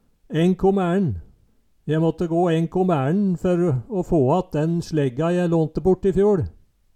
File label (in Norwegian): enkom æern, Numedalsmål